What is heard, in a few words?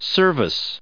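"service", US English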